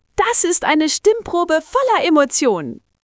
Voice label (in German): Fröhlich, stimmen; moods